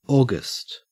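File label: "august", Australian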